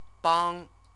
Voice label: "bang", Chinese; CTCFL; University of Oxford